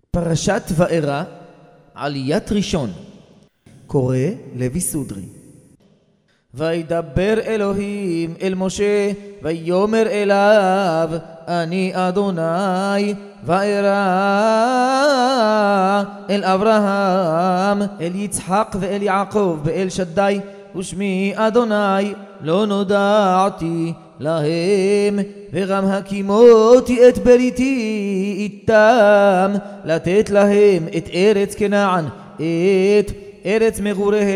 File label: recorded tanach parshat vaeira sefardi yerushalmi